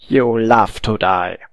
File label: taunt, voice